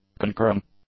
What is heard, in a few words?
"concurring", English; adjectives